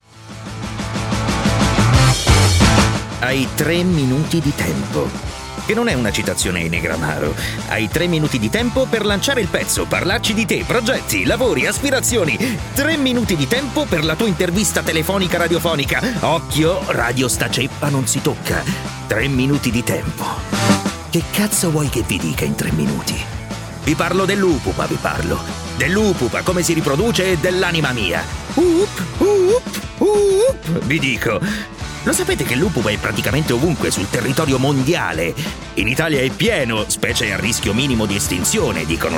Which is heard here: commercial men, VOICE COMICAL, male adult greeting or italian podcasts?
italian podcasts